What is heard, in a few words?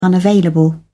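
"unavailable", British English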